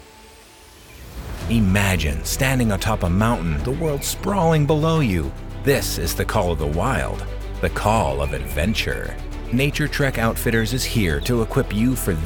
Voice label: Camping Gear Commercial